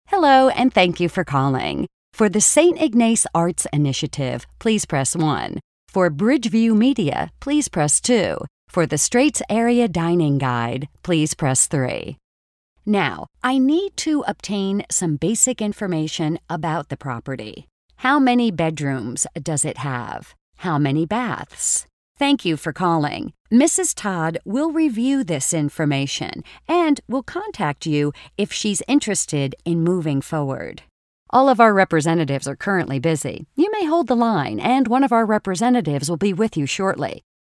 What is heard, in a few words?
american female; dictor